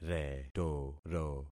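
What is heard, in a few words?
"retoro", concatenated syllables; Affixing experiment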